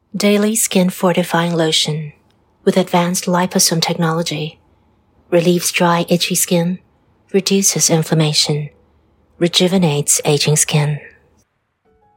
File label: singapore voice natural